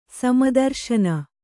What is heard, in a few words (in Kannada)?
"ಸಮದರ್ಶನ", kannada